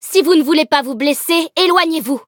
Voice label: battle, fr